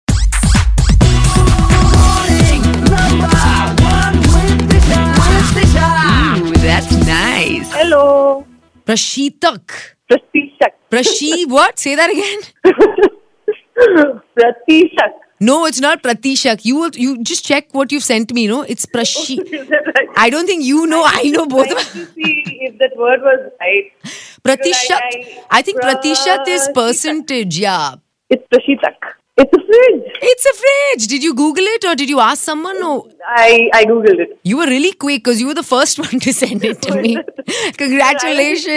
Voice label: refrigerator in hindi

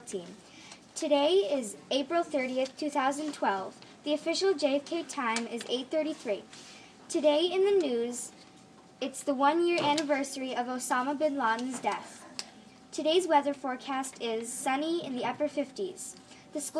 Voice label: daily announcements

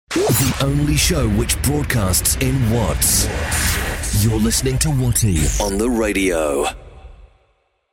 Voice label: jingle, male

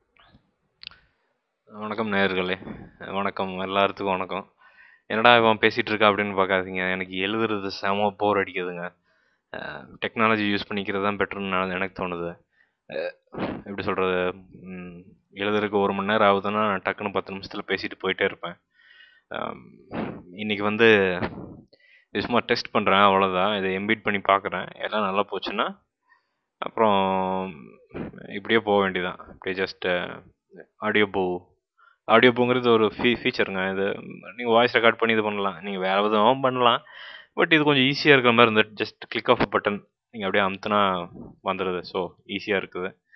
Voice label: mic testing 1 2 3

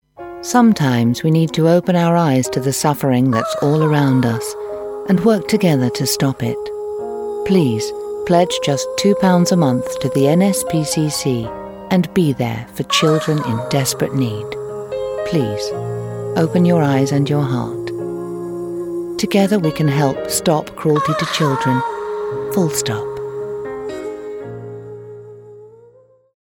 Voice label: advert; nspcc

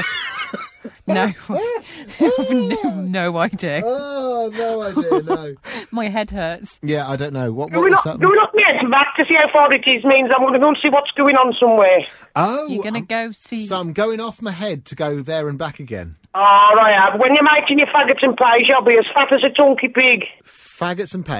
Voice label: learn black country dialect

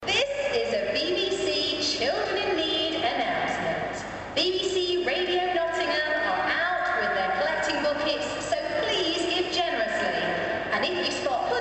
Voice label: nottingham train station tannoy

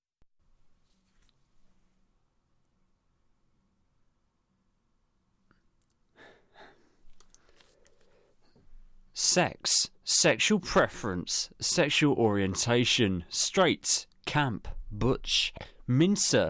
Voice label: voiceover; for radio 1's surgery

